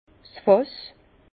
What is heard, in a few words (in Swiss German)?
"fass", Bas Rhin; vin; tonneau